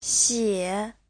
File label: "xie3", Chinese